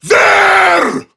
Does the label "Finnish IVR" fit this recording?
No